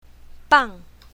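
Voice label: "bang4", pinyin, south, female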